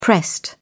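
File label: "pressed", British English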